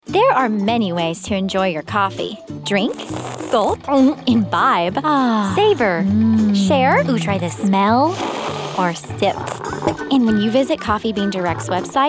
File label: Commercial